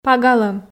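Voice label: "пагалӧм", Komi, female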